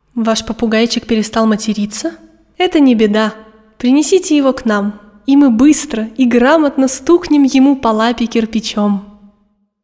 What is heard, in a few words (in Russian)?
Diktori studii Reclama; Bashnya; Ваш попугайчик перестал материться?..